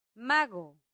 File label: "mago", latin; santillana